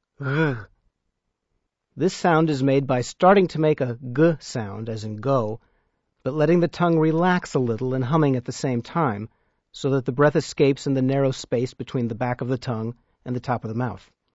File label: Klingon sounds gh, Letter gh